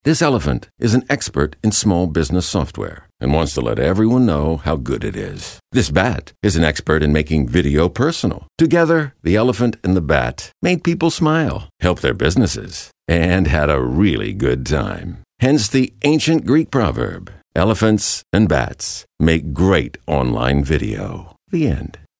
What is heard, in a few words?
corporate